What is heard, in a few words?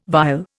"vile", Indian